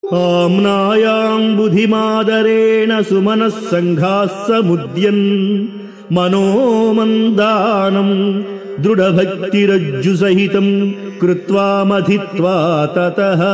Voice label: Sanskrit; Sri Adi Sankaracharyas Sivananda Lahari; Adi Shankaracharya; श्रीशिवानन्दलहरी ३७